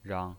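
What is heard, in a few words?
"rang", Mandarin Chinese, neutral tone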